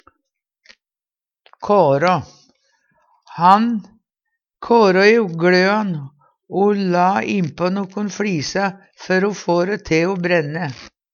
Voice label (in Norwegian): "kårå", Numedalsmål